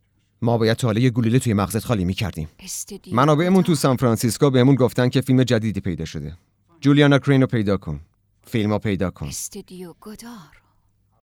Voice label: dub